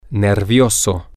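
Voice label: nervous, Spanish, male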